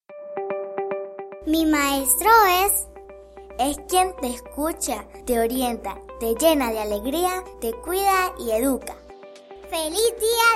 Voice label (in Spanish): Spot Radio; Talento